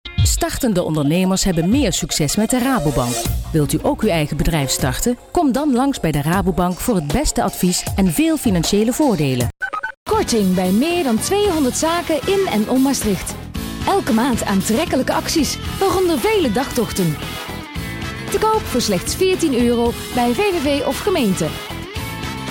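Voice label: Dutch female voice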